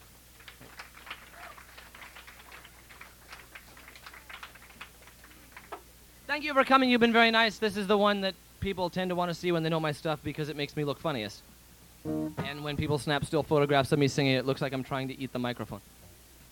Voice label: Banter